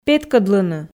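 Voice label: "петкӧдлыны", Komi, female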